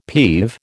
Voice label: "peeve", American